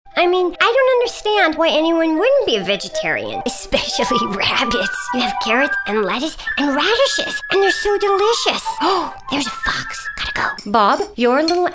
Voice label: Animation